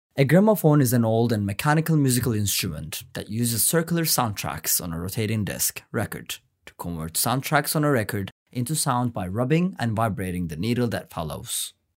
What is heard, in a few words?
english